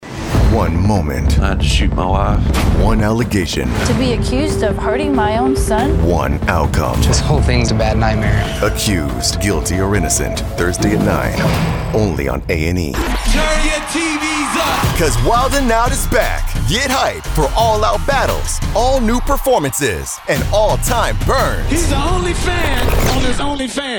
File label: promo